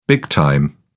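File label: "big time", English, British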